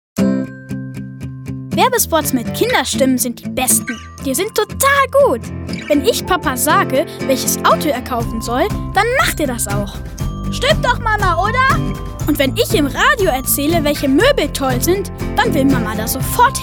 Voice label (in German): Werbung